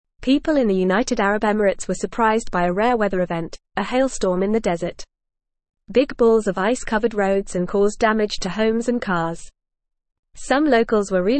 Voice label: English FAST Reading; English Newsroom; Rare hailstorm surprises UAE residents causes damag

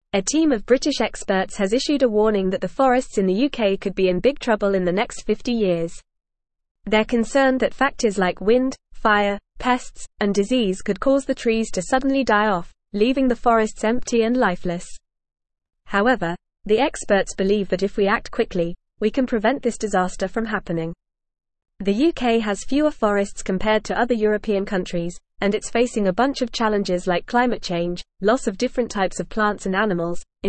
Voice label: English Upper Intermediate FAST Reading; UKs Forests Facing Catastrophic Collapse Experts Wa; English Newsroom

